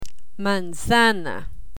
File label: "manzana", Spanish; learn spanish